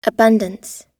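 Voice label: "abundance", American English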